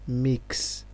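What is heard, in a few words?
"mix", Nigerian Pidgin, male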